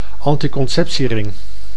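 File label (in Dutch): "anticonceptiering", Dutch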